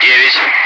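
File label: "9", Russian; Wingman; Digits; DCS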